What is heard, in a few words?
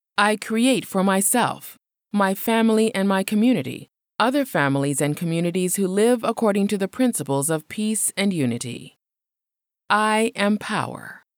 I Am Power English Female